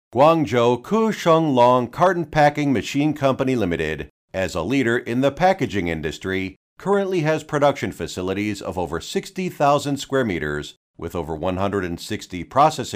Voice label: 英文解说词; 科盛隆英文解说词

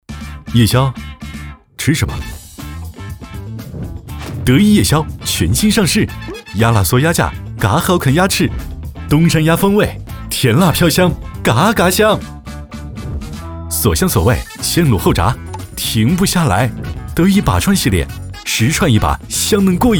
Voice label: 男 广告 时尚; 德克士广告

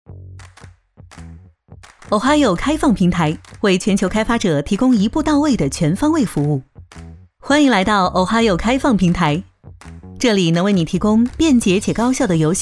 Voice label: 女 自然生活节奏感; Ohayoo平台

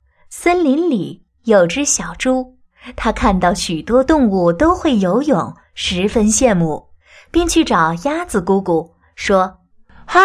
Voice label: 一人分饰多种角色 故事, 国语女声配音; 大气专题

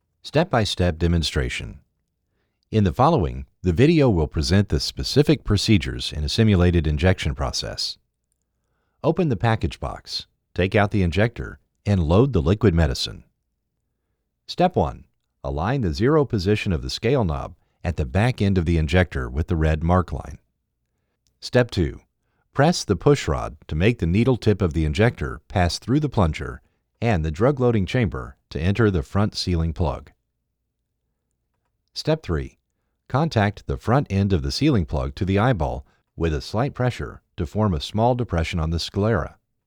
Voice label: 医疗某注射器纯英文配音

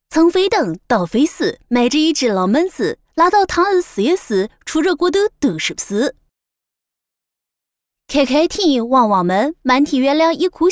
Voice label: 女 合肥话 女

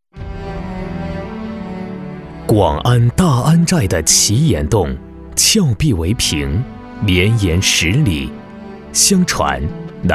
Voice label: 男 纪录 专题

